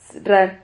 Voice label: "zrar", Silabas

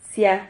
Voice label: "xia", Silabas

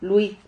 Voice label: "lui", Silabas

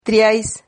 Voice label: "triais", Silabas

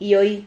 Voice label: "hioi", Silabas

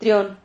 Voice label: "trion", Silabas

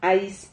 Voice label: "hais", Silabas

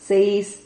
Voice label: "ceis", Silabas

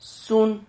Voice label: "sun", Silabas

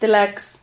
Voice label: "tlax", Silabas

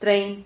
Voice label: "trein", Silabas

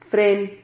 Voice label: "fren", Silabas

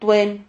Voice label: "tuen", Silabas

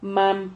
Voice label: "man", Silabas

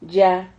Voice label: "lla", Silabas

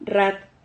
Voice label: "rrad", Silabas

